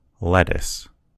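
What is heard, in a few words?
"lettuce", American English; gcompris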